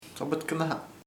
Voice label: "vampire bat murciélago", chamacoco